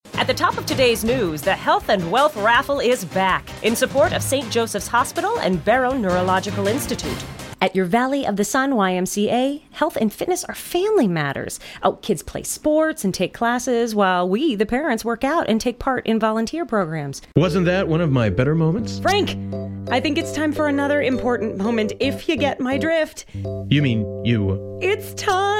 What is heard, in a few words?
Commercial; Female voices